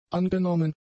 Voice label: "angenommen", German; adjectives